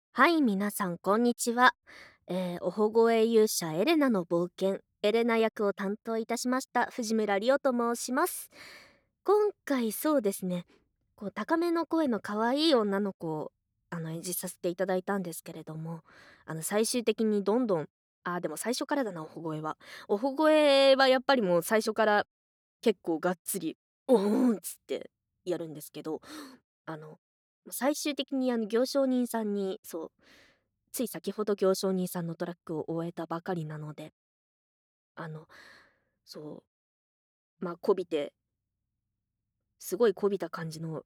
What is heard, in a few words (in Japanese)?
フリートーク